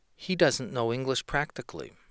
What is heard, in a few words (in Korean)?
원어민 SHE DOESNT KNOW ENGLISH PRACTICALLY